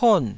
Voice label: "pon4", taiwanese